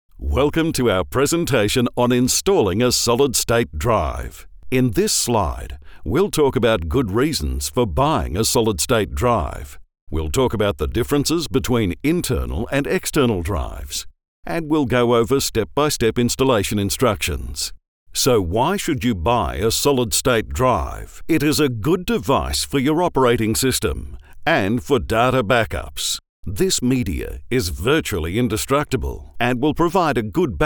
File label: Explainer